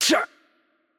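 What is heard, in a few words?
Spanish attack light voice; bloom minigame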